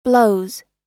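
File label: "blows", American English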